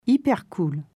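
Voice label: "Hyper-cool", French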